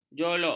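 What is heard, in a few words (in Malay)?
"jolok", Malay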